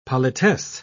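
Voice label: "politesse", British English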